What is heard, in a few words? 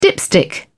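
"dipstick", British English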